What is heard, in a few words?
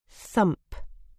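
"thump", British English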